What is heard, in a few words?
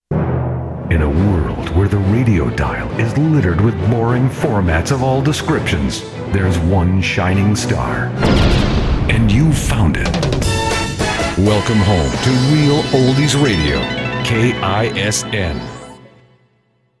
sweeper